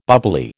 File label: "bubbly", American